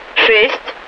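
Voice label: Russian speech, Mi-8MT RI65; DCS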